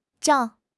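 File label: "zhao4", Chinese; HSK4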